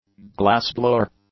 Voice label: "glassblower", English

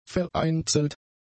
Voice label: "vereinzelt", German; adjectives

